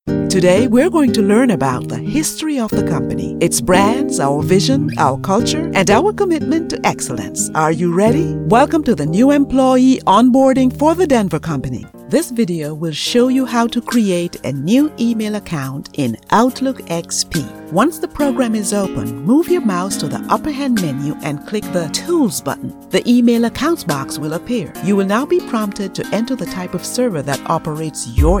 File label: Female Caribbean